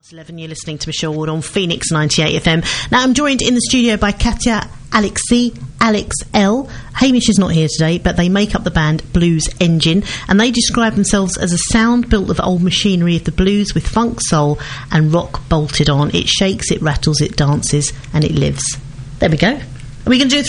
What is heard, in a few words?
Interview